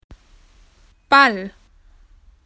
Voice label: ball, spoken AU; PictureDictionary